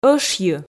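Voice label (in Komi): "ӧшйы", Komi, female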